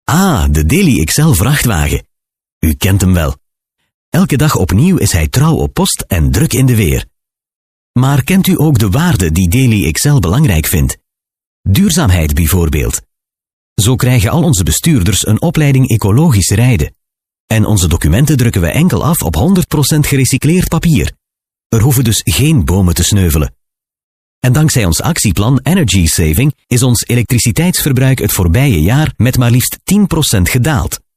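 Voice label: flemish male narration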